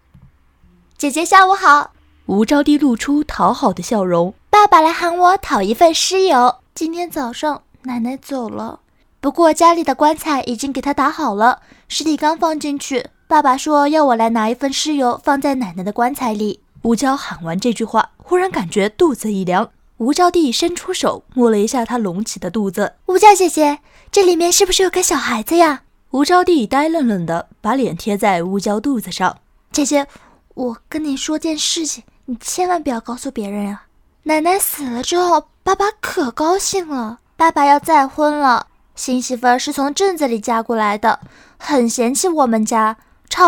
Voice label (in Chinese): 小说录音